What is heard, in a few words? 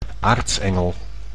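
"aartsengel", Dutch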